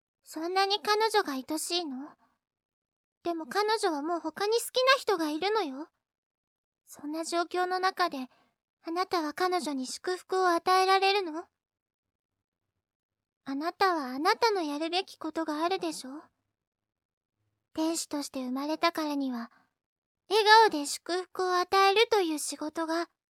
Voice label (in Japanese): 子供っぽく, 女の子; 同じ台詞で２パターン; KA×4Cafe」さまのサイトの、「100のセリフ」より拝借した台詞で、いろいろ。